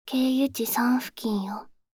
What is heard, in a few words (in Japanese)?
経由地3付近よ, カーナビ 案内音声; カーナビ(で使えるかもしれない)案内音声